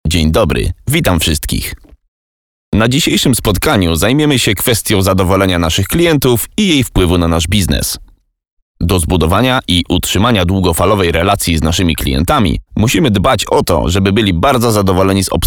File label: polish e-learning